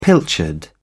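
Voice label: "pilchard", British English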